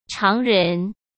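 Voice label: "常人", Mandarin Chinese; hsk; chinese-flashcards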